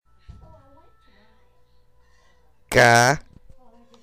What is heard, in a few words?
Tone 5 is rising tone male